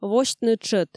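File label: "восьтны тшӧт", Komi, female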